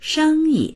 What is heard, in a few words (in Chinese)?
"sheng1yi", voices